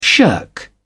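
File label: "shirk", British English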